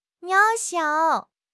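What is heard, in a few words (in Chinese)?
"渺小", Chinese; HSK; HSK6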